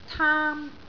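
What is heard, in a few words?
"taam1", Cantonese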